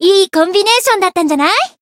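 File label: Voice win Japanese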